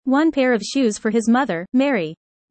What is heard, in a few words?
user fast; how tall